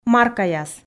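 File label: "маркаяс", Komi, female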